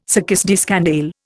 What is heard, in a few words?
"succÊs de scandale", Indian